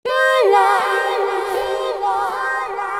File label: voice FX, Drum+Bass, 160; eJay